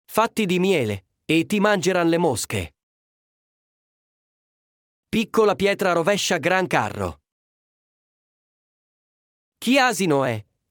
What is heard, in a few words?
Italian proverbs